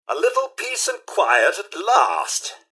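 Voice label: generic robot combat to normal; mrhandy; npc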